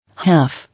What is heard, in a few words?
"Half", English